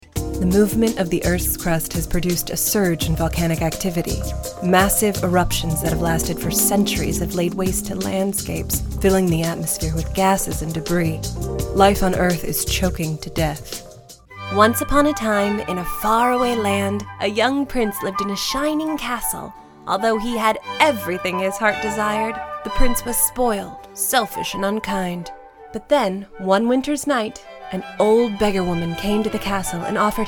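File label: American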